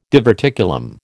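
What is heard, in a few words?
"diverticulum", American English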